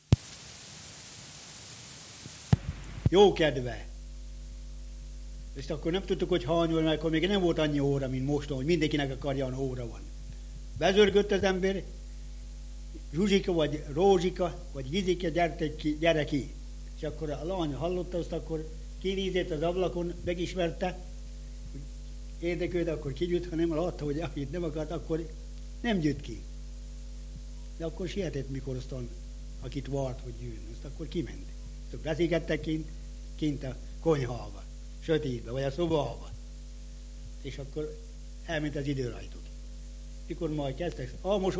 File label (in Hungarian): nyelvjaras gyujtesek